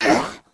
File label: Lizard voice miss